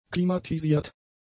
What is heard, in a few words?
"klimatisiert", German; adjectives